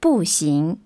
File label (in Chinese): chinese walk; samsung YP-U5; Audio User Interface